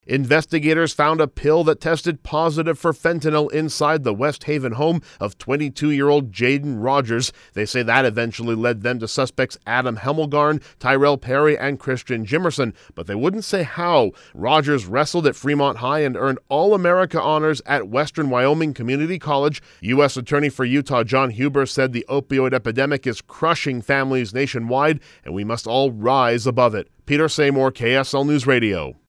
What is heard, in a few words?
VOICE PSA; FENTANYL DEATH; weber county deadly fentanyl overdose